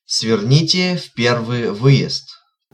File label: Exit 1, Russian, male